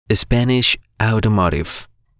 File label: Spanish Automotive